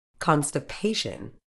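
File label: "constipation", British English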